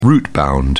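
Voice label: "rootbound", English, British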